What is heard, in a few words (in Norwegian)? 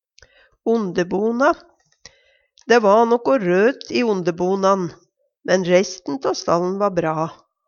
"ondebona", Numedalsmål; Dæ va nokko røt (e) i ondebona'n, men reisten tå stall'n va bra.; Substantiv hankjønn